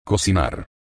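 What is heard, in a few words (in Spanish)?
"cocinar", Spanish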